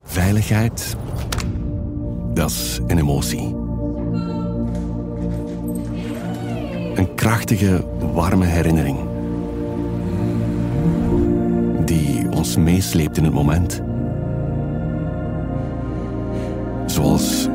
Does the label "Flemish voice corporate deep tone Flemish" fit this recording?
Yes